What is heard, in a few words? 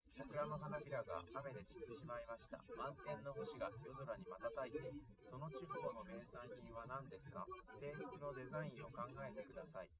male, live rec, 130ms, 1m; SiSEC08; SiSEC underdetermined